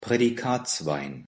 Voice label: pronunciation de prädikatswein